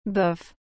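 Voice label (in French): "Bof", French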